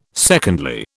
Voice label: "secondly", British